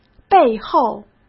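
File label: "背后", Mandarin Chinese; chinese-flashcards; hsk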